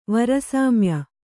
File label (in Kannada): "ವರಸಾಮ್ಯ", Kannada; vara sāmya; noun